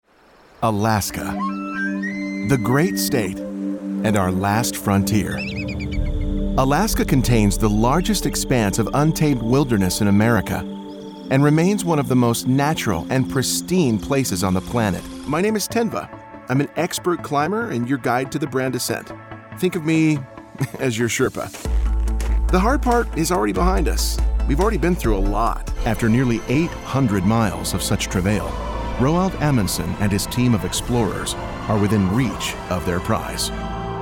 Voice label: Narration